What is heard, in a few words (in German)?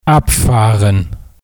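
"abfahren", German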